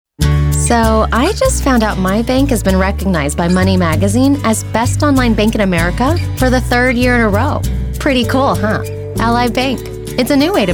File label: Commercials